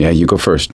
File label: lead on, voice; gambler